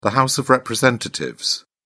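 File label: "house of representatives", British English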